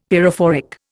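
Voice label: "pyrophoric", Indian English; Dictionary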